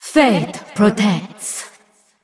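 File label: Female Valkyrie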